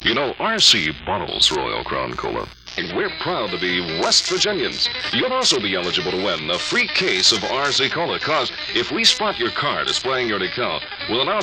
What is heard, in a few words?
Pepsi bumper sticker promo